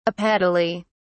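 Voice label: "apetaly", British English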